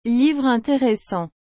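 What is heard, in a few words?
"livre interessant", French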